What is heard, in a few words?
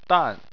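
"dan" fourth tone, Chinese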